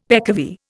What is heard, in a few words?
"peccavi", Indian; Dictionary